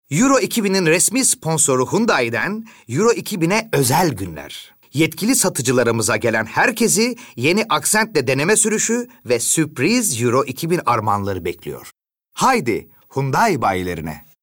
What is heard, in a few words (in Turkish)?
REKLAM